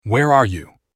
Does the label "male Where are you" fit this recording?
Yes